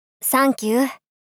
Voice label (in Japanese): サンキュー ツンデレ×少女×中音域